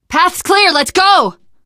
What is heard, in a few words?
path clear, teengirl; voice; source engine; left 4 dead 2; survivor